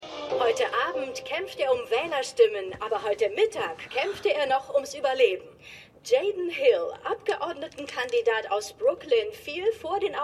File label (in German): Nachrichtensprecherin; Everything's Trash